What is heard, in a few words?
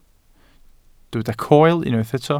"coel", welsh